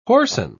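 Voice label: "whoreson", British English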